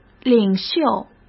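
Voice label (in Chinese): "领袖", Mandarin Chinese; hsk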